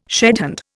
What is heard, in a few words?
"shedhand", Indian